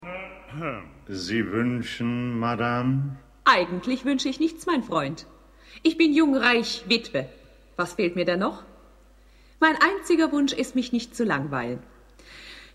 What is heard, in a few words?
dialog; flotow; die witwe grapin